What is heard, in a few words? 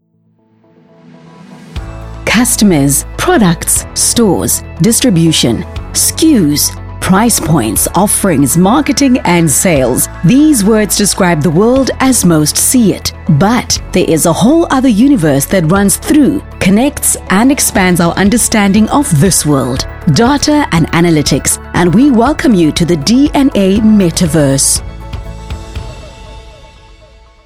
Friendly Corporate